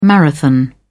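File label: "marathon", English, British English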